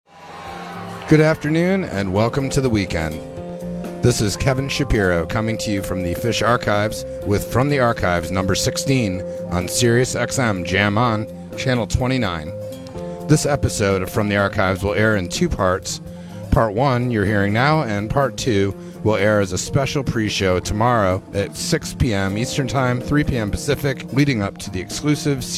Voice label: radio banter; SPAC

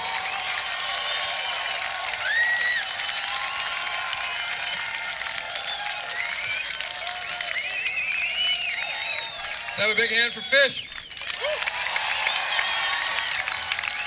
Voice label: Banter; Salisbury, CT; Salisbury School

